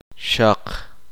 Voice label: uspanteko hoja

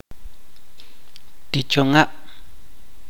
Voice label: "idioma lenguaje", Chuj

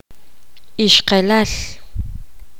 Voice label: poqomam esposa

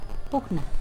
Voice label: Nawat palabras sucio; pipil

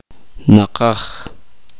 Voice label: "cerca", uspanteko

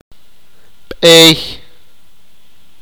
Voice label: "camino", sipakapense

